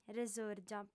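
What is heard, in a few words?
"resòrgia", female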